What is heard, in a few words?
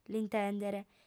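"l'intèndere", female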